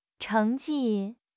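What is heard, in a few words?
"cheng2 ji4", Mandarin Chinese; HSK3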